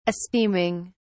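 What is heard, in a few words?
"esteeming", British English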